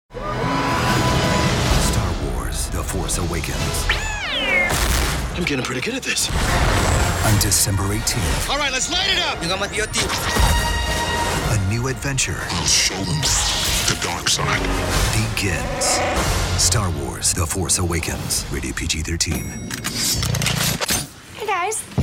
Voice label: trailer